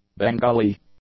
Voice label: "Bengali", English; adjectives